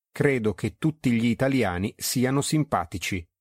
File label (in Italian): credo che tutti gli italiani siano simpatici, Italian